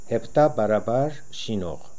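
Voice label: "Heptaparaparshinokh", pronunciations; Fourth Way; Beelzebub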